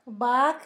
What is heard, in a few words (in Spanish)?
vaca, Zapoteco; Animales; San Pedro Mártir; INALI